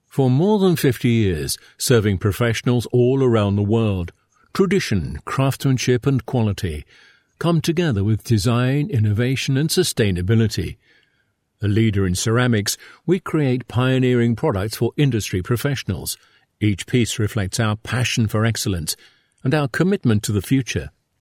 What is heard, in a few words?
english voiceover locutor ingles